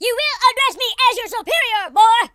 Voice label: leave me alone, Drill Sergeant; speech